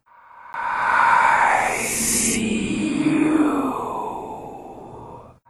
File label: creepy i see you; taunts; hunters